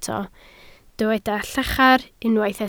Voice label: "llachar", Welsh